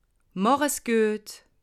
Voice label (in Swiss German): "mach", alsace